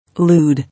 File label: "Lude", English